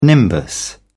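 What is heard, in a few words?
"nimbus", British English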